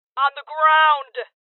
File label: Order To Surrender Female, Voices